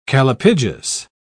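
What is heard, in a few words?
"callipygous", British English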